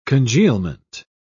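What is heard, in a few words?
"congealment", British English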